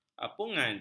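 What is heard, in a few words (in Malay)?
"apungan", Malay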